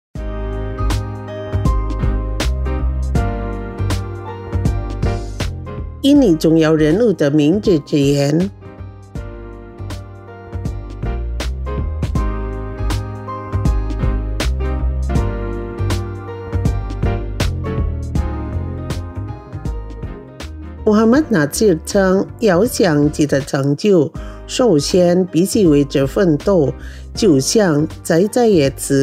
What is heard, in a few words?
Mandarin quote; Kata Bijak